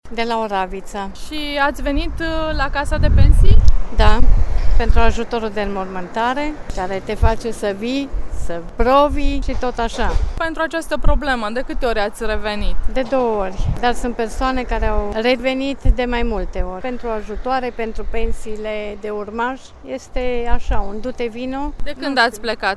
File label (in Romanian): vox pensionari